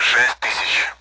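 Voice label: Speech Sound Russian Common AWACS Numbers; DCS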